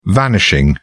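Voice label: "vanishing", British English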